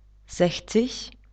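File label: "sechzig", German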